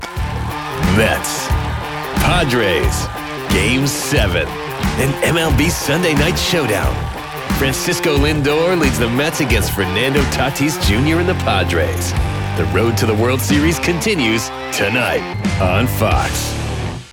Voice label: Promo Sports